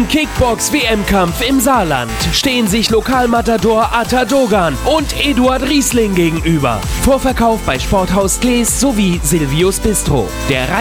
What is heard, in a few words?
Radio Fight Night